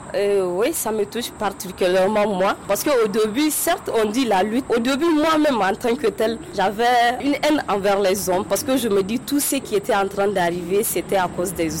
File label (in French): FR VOX POP FEMME INTERNAUTE; STEREOTYPE